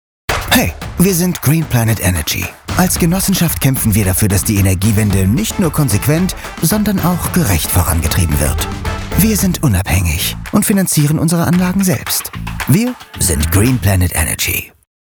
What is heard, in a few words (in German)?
sprachprobe werbung off; greenplanetenergy